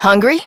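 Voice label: Adult, English; New War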